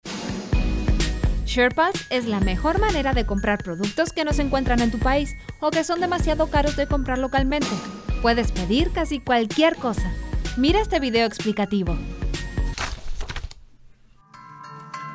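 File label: Spanish, female, neutral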